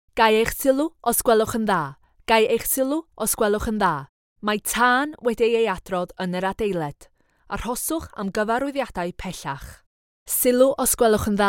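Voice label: Welsh female system announcement Welsh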